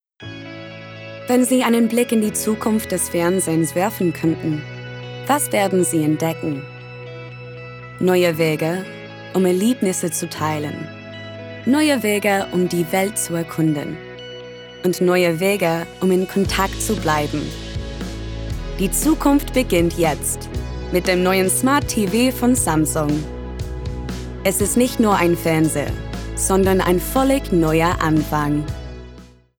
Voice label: Promo, Cool, Smooth, Confident, RP ('Received Pronunciation'); Samsung